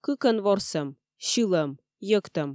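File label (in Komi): "кыкӧн ворсӧм сьылӧм йӧктӧм", Komi, female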